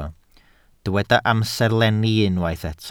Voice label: "amserlenni", Welsh

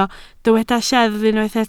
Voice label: "lleddf", Welsh

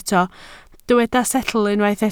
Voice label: "setl", welsh

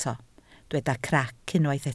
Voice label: "crac", Welsh

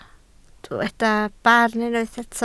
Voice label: "barn", Welsh

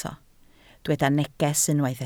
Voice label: "neges", Welsh

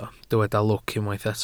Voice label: "lwc", Welsh

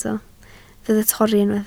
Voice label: "torri", Welsh

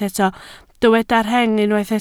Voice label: "rheng", Welsh

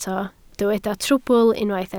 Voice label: "trwbl", Welsh

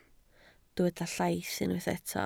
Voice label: "llaith", Welsh

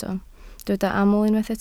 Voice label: "aml", Welsh

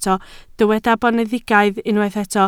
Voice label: "boneddigaidd", Welsh

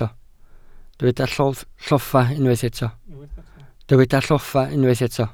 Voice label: "lloffa", Welsh

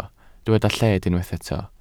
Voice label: "lled", welsh

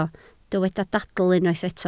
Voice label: "dadl", Welsh

